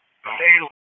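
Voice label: Fire Department HQ Dispatch; RFD; wake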